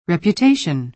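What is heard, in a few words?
"reputation", American English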